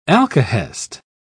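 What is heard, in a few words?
"alkahest", British English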